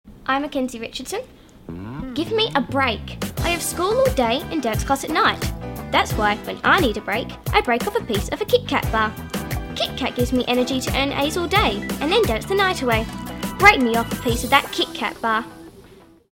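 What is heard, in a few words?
Voice Over; Actor, Voiceover; Location Perth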